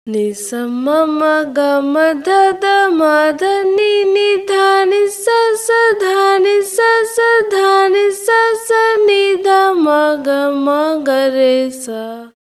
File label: voice, timestretch 200